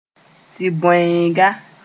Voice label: "tɩ-bõeega", Moore; nursery

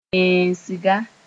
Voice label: "yẽesenga", Mooré

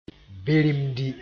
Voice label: "birimdi", moore

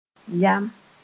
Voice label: "yam", Moore

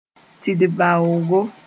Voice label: "tidbaoogo", Moore; white-tailed mongoose

